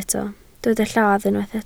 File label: "lladd", Welsh